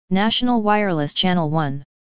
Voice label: Test Announce